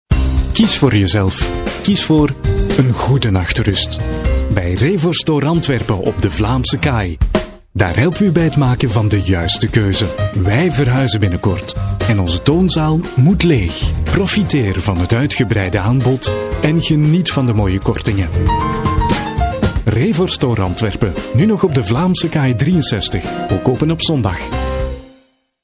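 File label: flemish male commercial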